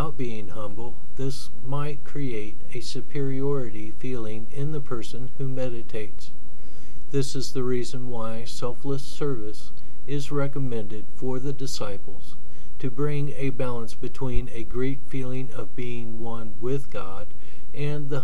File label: MEDITATION AND PRAYER; mission of maitreya